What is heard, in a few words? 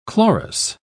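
"chlorous", British English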